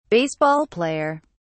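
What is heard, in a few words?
"baseball player", British English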